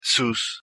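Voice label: "sus", Spanish; espanol en el mundo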